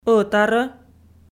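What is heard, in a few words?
"ӧтарӧ" Komi, female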